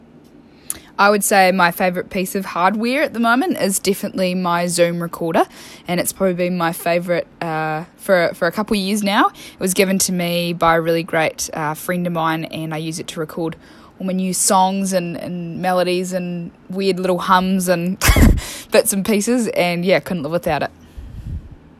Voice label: Vox Pop